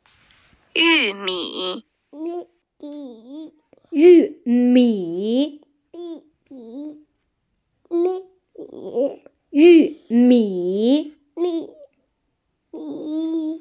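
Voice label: "yù mǐ", Mandarin Chinese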